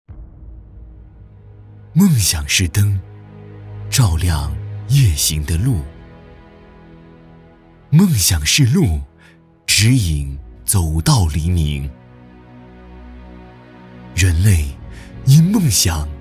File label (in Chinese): 宣传片大气稳重; 筑梦